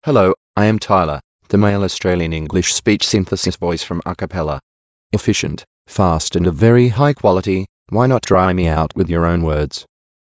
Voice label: en AU